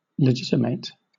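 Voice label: "legitimate", British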